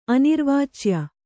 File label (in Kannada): "ಅನಿರ್ವಾಚ್ಯ", Kannada